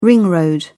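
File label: "ring road", British English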